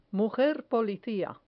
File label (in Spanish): "mujer policia", Spanish